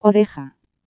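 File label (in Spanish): "OREJA", Spanish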